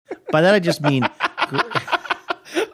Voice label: laughing saying by that i just mean

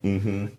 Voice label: quiet mmm hmm

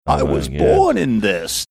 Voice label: saying i was born in this funny

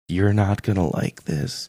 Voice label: quietly saying youre not gonna like this

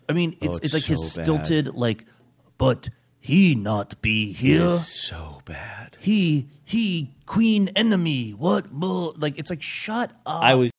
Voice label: stilted manner of speaking

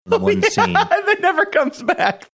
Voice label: laughing saying oh yeah it never comes back

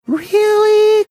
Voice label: saying really falsetto voice

